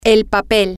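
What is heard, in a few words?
the paper, Spanish, female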